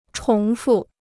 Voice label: "重复", Chinese